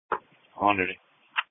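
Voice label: Police Patrol Dispatch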